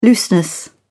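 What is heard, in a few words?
"looseness", British English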